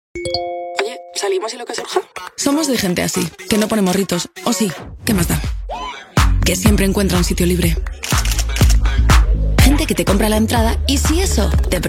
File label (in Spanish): Joven ES